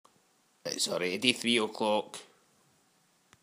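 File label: "Awready", slang; Ah huvnae seen ye aboot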